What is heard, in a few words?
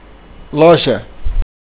store, portuguese